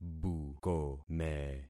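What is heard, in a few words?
"bukome", concatenated syllables; Affixing experiment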